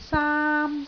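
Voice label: "saam1", Cantonese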